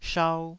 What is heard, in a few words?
"shau2", taiwanese